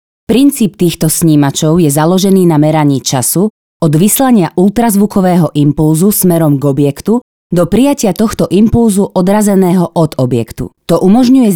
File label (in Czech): slovenština žena